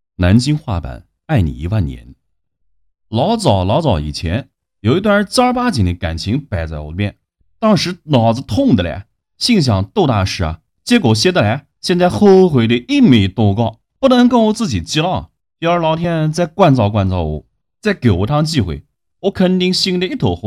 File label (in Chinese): 南京话版